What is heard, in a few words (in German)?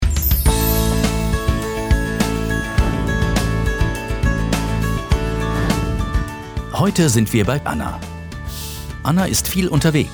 Sprecher kontaktlos bezahlen; Targobank